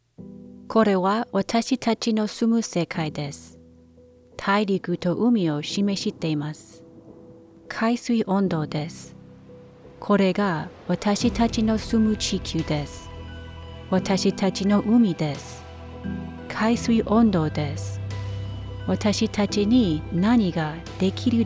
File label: with music; Climate NH